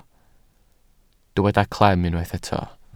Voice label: "clem", Welsh